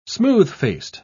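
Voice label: "smooth-faced", British English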